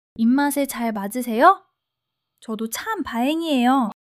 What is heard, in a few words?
joy; monologue